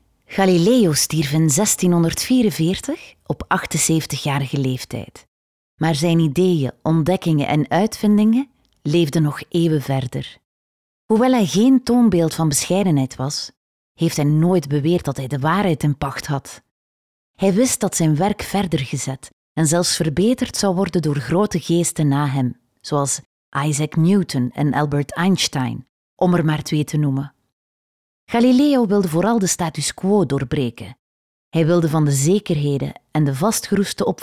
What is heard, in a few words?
flemish narration; flemish voice actors